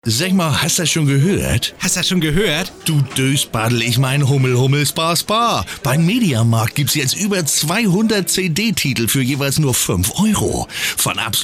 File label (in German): Dialekt Hamburgerisch